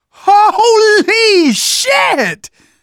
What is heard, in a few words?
violence awe, survivor voice manager; Left 4 Dead 2; left4dead2 dlc3